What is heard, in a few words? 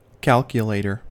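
"calculator" English, American accent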